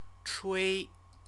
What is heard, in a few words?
"chui", Chinese; CTCFL; University of Oxford